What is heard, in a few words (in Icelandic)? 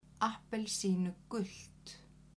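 "appelsínugult", Icelandic, female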